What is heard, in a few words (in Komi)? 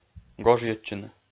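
"гожйӧдчыны", Komi-Zyrian, male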